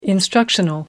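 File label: "instructional", British English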